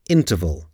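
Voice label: "interval", English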